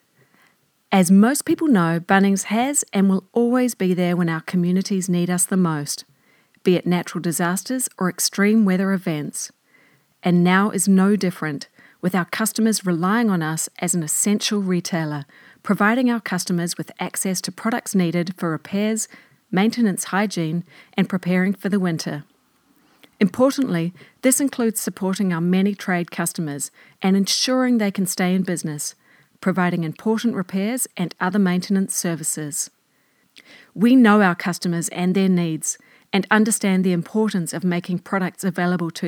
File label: Corporate